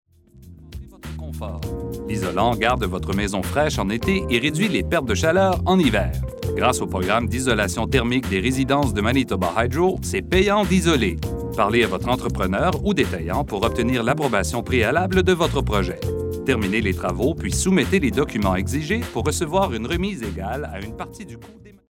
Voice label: FRENCH